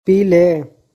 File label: "Peele", Magahi; Drink